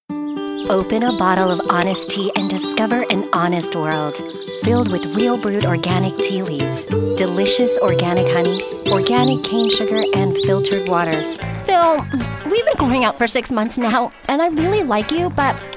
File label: Commercial